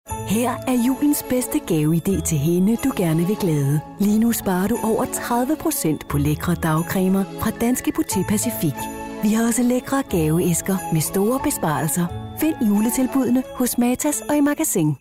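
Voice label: dk commercial, Danish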